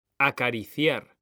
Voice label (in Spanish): "ACARICIAR", H; mekanta